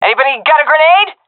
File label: request grenade, seal fem; vo; Counter Strike Model Pack; CS:GO